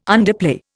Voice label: "underplay", Indian